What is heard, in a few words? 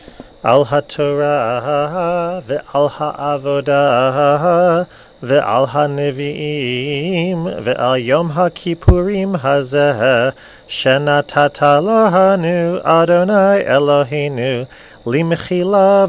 blessing after haftarah end no tune